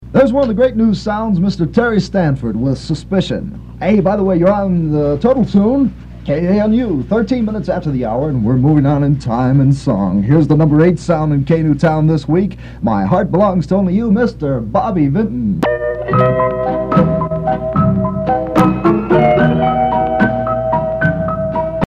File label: radio; afrts; kanuradio